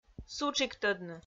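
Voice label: "сутшиктӧдны", Komi-Zyrian, female; audio dict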